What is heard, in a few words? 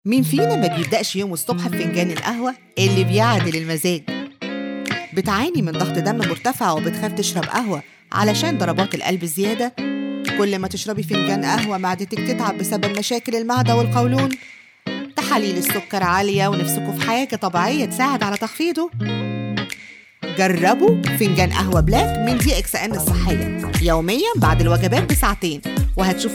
Commercial Sample